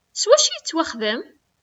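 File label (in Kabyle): S wacu i yettwaxdem?, Kabyle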